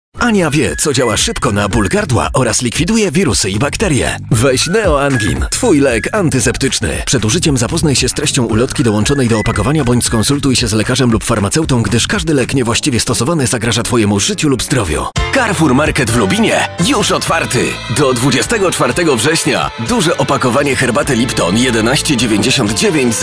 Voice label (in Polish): mix reklam